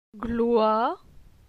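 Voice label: "Gloi", French; phonetique; la syllabe oi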